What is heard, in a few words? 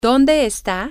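where is he she it, Spanish, female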